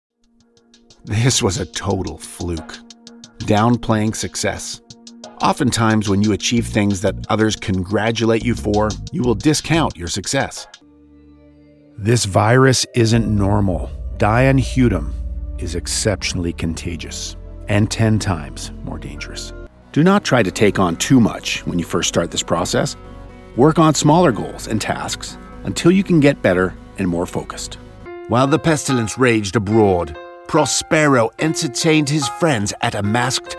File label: Voiceovers Audio Books